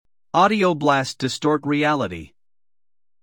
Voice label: Voice Killa Dry; distoblast